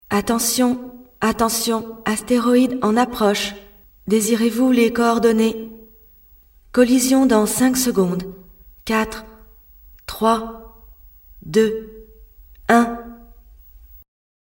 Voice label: Hôtesse Vaisseau spatial

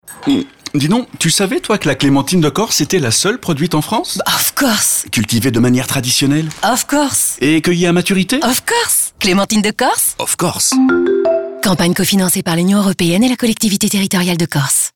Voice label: SPOT RADIO; CLEMENTINE DE CORSE

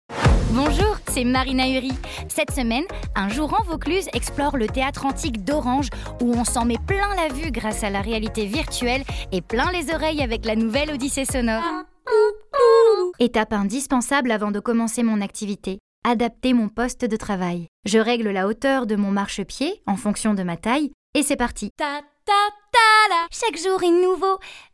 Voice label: voix off

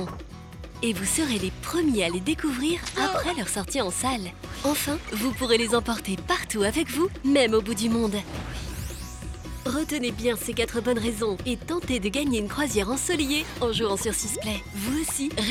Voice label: EN ACHAT DIGITAL souriante sympa; VAIANA

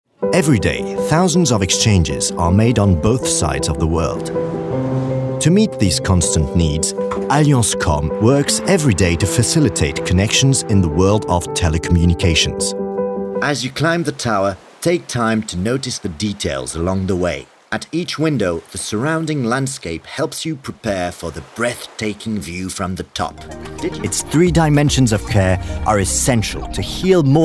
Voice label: demo voix off, EN

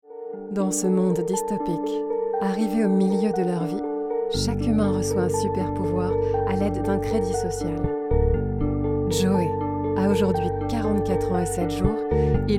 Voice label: voix off intro court metrage

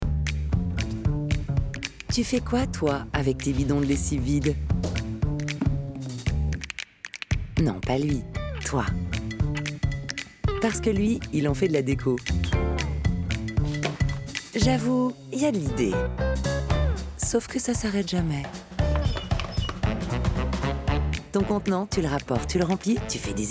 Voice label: Spot; EkOVRAK

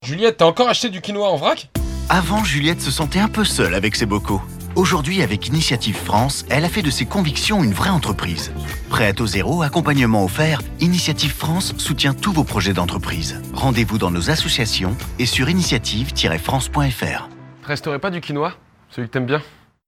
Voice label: Spot TV Institutionnel ton sympa rassurant; 20 sec; INITIATIVE FRANCE

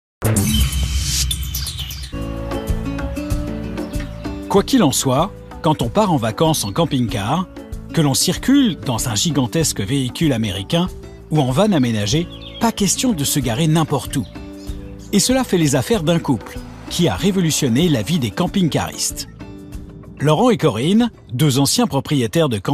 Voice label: Voix Narrative Ton journalistique; voix-off; Capital; M6

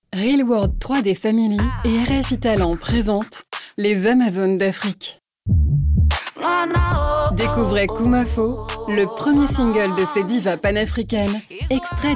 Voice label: habillage; RFI TALENT

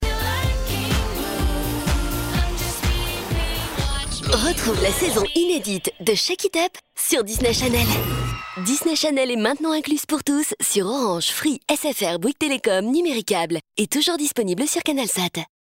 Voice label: girly péchue; DISNEY CHANNEL

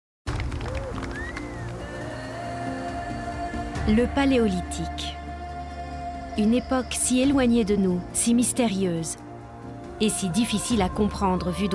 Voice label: narration; Venus de Willendorf

